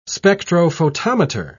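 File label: "spectrophotometer", English, British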